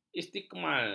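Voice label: "istimal", Malay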